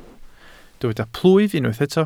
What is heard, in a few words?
"plwyf", Welsh